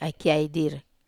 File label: "ai kiai dire", Wamesa